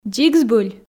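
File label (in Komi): дзик збыль, Komi, female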